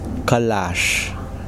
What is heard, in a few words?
"smoke", Kosraean